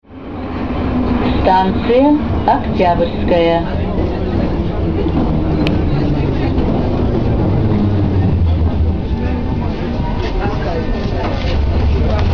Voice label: female